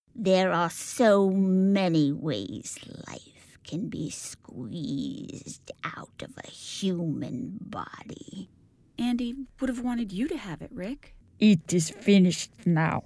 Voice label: Amerikan Ingilizcesi Kadin; Amerikan İngilizcesi Seslendirme

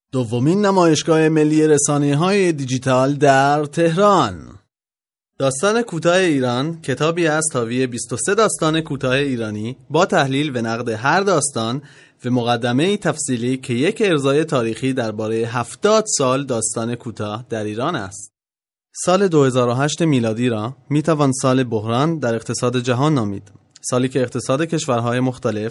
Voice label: Farsca Erkek Iran; seslendirme

